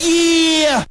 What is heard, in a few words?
"yeah" scratch